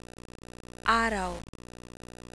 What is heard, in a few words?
araw dpt, tagalog diphthongs; araw (sun/day)